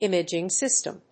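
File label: "Imaging System", English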